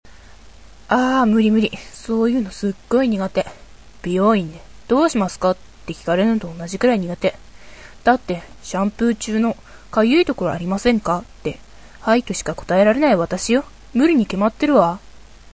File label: girl